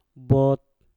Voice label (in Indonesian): "bot" muda, Mpur